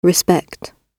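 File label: "respect", British English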